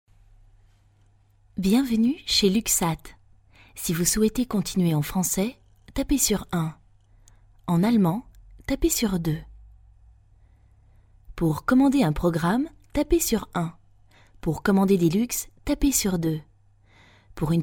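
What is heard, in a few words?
French, female, telecom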